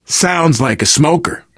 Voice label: heard smoker, player survivor voice biker

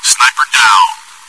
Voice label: sniper down, bot; Source Engine; cstrike

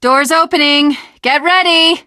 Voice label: opening door, teengirl; Left 4 Dead 2; Source Engine; video games

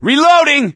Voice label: reload intense, gambler, survivor voice; source engine; left 4 dead 2

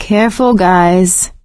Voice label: warn careful, survivor voice; source engine; left 4 dead 2; producer

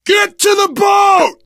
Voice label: arrive boat, survivor voice

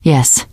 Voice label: yes, teengirl; survivor voice

